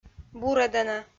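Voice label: "бурӧдана", Komi, female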